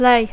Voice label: "lay", English

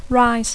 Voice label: "rise", English

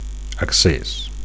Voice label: "acces", Catalan; (m) access; (m) access door; access hatch; access ladder

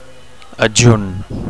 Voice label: "adjunt", Catalan; attached; enclosed; assistant

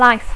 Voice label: "life", English

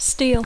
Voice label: "steal", English

